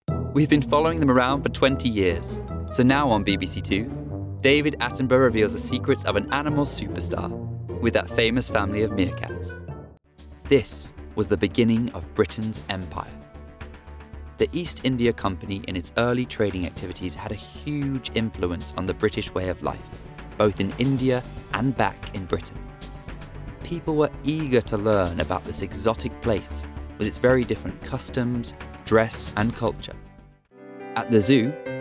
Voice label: Documetary compilation